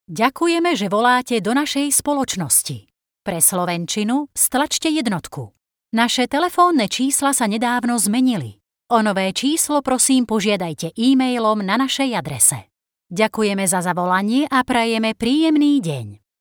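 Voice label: Czech IVR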